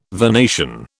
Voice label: "vernation", British